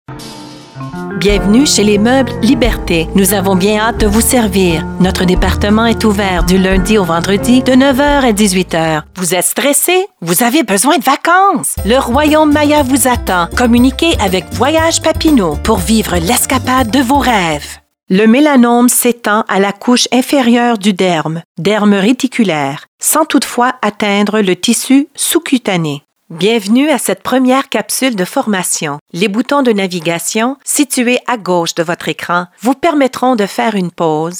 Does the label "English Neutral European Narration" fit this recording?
No